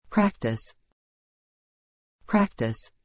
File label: "practice", English